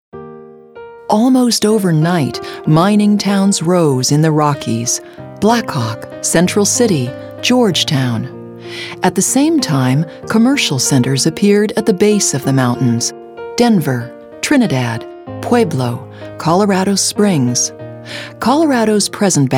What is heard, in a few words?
Narration Sample